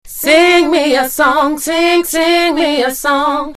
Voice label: Sing me a song, Vocals